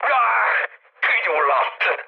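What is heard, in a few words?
Hungarian on fire mood low, speech; War Thunder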